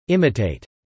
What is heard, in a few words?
"imitate", English